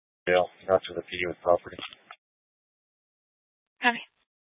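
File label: Police 1 Dispatch, Scanner audio recording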